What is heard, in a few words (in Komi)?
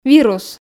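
"вирус", Komi, female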